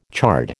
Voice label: "chard", American